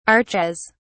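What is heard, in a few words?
"archaise", British English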